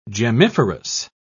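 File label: "gemmiferous", British English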